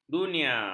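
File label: "dunia", Malay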